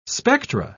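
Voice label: "spectra", English, British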